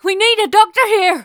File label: vo nurse female ethnic find body; lucius ii the prophecy